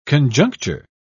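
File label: "conjuncture", British English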